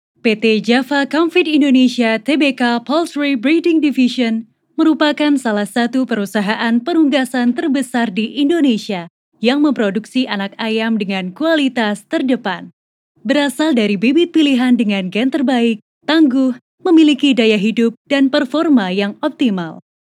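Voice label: FORMAL Indonesian, The light tone she possesses brightens up the listeners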